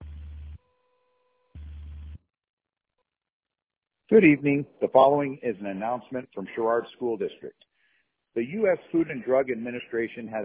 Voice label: voicemail